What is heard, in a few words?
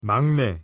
"막내", Korean; pronunciation